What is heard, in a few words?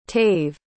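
"tave", English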